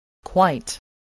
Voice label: "quite", english